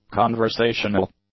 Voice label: "conversational", English; adjectives